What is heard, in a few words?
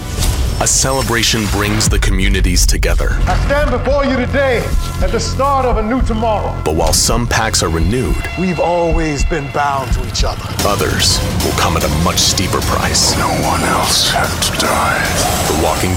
promo voiceover; The Walking Dead